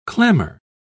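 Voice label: "clammer", British English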